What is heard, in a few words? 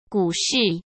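"股市", Mandarin Chinese; chinese flashcards; hsk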